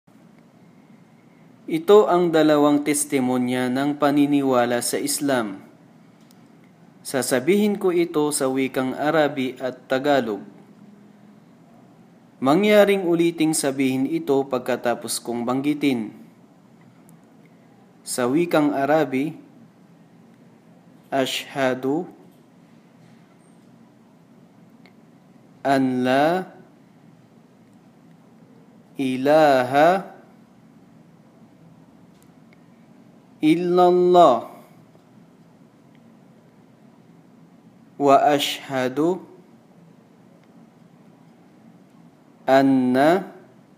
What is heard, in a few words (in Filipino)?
testimoniya filipino